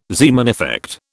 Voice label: "Zeeman effect", British